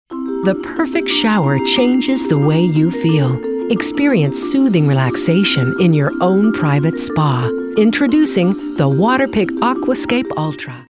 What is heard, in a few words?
classy, sophisticated